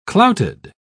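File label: "clouted", British English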